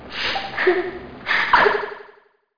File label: GIRL